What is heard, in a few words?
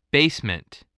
"basement", English